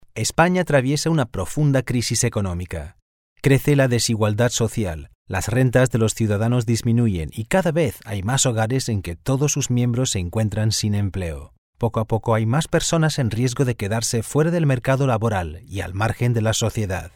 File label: spanish narration